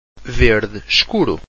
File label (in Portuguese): "verde escuro", Portuguese